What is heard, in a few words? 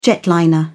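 "jetliner", British English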